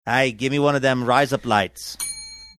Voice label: saying ay give me one of them rise up lights australian